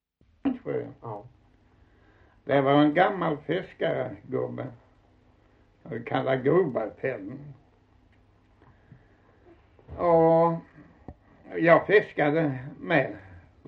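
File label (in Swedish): Osby Skåne, dialekter; Folkminnen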